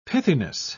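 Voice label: "pithiness", British English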